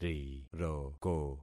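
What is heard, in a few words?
"riroko", concatenated syllables; Affixing experiment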